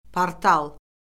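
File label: "portal", Azerbaijani; Orfoqrafiya Lüğəti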